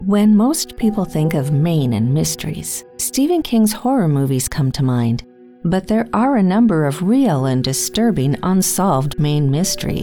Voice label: Narration